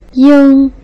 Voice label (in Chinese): "ing", Putonghua